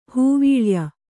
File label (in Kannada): "ಹೂವೀಳಯ", Kannada